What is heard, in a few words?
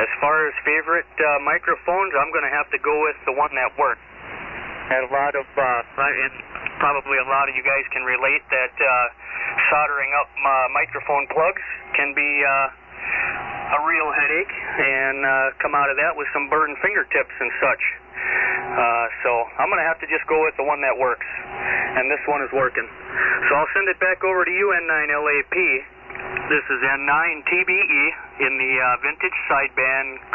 Drake Dentron 1200L & Shure 444 Mic; Forestville, Wi.